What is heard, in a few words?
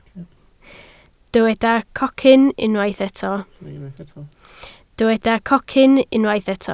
"cocyn", Welsh